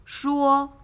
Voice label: "shuo", Chinese

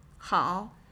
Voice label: "hao", Chinese

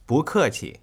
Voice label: "Bu keqi", Chinese

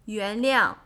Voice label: "yuanliang", Chinese

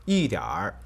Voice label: "Yi diar", Chinese

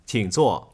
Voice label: "Qing zuo", Chinese

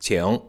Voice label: "Qing", Chinese

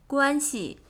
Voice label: "Guan Xi", Chinese

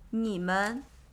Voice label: "Nimen", Chinese

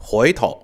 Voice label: "Huitou", Chinese

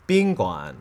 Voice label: "Bin'guan", Chinese

